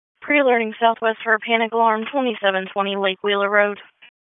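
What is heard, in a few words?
Police Southwest District Dispatch, p25; Raleigh; wake p25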